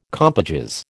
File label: "compages", American